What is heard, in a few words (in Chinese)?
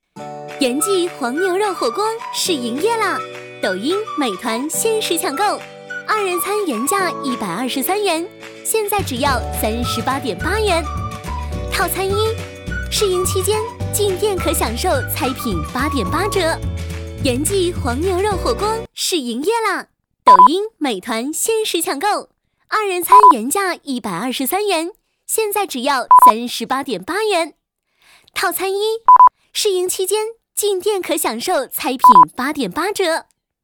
促销